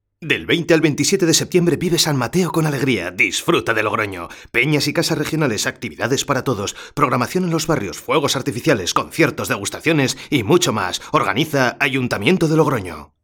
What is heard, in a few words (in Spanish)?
Voz Institucional